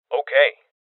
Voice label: Voices Confirmation Male Young

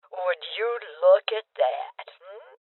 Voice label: Voices Loot Collect Female Old; rebel cops 2019 gamerip

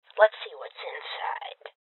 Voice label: Voices Open Safe Female Old

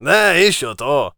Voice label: warrior conversation emotion excited

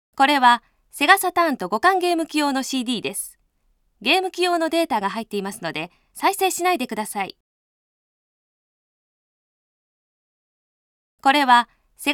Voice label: CD Warning (Japanese); Terra Cresta 3D; Nichibutsu; Saturn

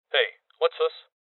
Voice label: Loot Spotted Male; rebel cops 2019 gamerip

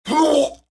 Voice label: Soldier Male

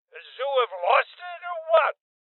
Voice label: Voices Male Old; rebel cops 2019

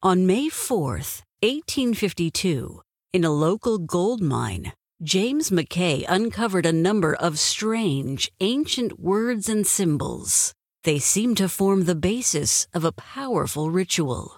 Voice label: Opening Narration; The Town

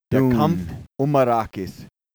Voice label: Title Speech (German); Dune 2; Amiga

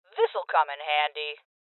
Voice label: Voices Loot Collect Female; rebel cops 2019 gamerip

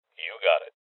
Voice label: Confirmation Male

